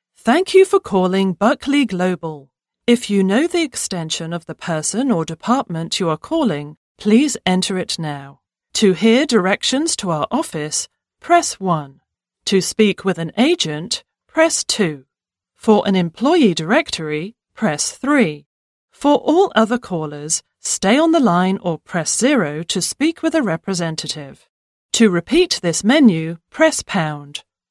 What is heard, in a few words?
english british ivr